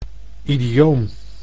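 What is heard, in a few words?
"idioom", Dutch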